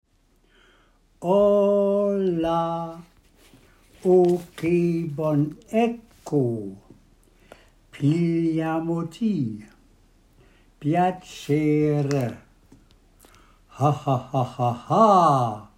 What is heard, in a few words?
eccho pronunciation